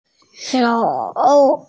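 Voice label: "shou3", Mandarin Chinese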